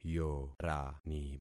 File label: "yorani", concat syllables; Affixing experiment